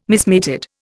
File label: "mismated", Indian